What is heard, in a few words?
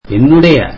tamil my